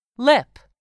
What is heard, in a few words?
"lip", English; 3000 words